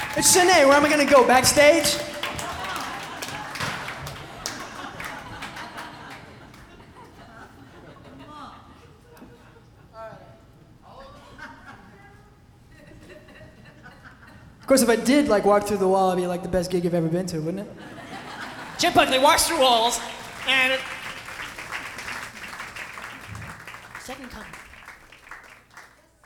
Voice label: (monologue)